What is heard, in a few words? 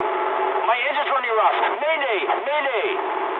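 English damaged engine mood medium; speech; War Thunder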